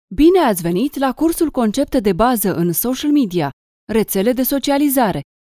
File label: romanian e-learning